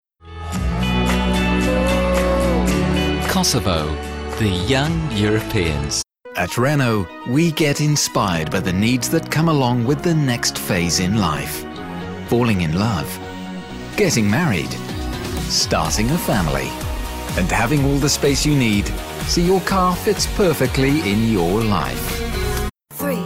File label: vo commercial mix